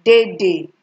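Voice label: "dede", Nigerian Pidgin, female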